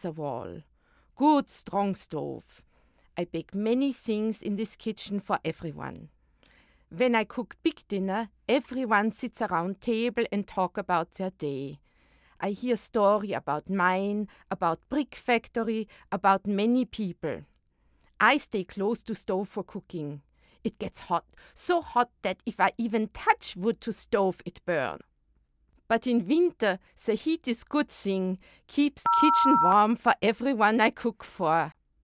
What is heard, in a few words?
German Woman